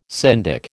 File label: "syndic", American